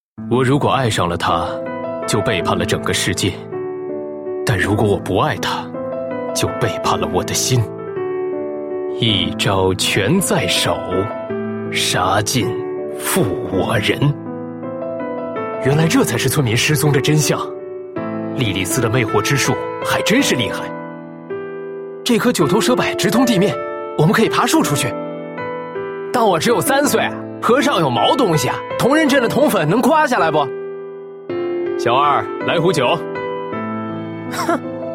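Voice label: male young adult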